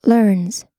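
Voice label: "learns", US English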